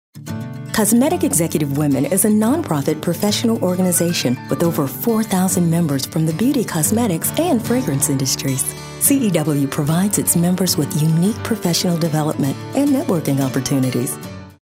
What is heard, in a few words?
friendly